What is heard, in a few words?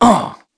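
Vox Landing, voices heroes English; kingsraid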